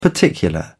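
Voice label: "particular", British English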